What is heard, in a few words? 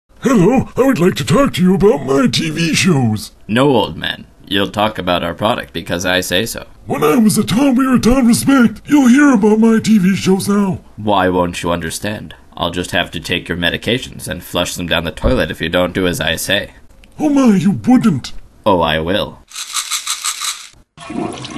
Commercial; fake commercials; Old Coot